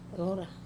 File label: "rora" heaven, Wamesa